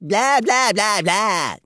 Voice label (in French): bla bla bla, gnome, male; PhoenixCraft-1.16.5; PhoenixNPCPack; minecraft